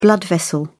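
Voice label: "blood vessel", British English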